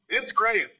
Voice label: Voice Acting, es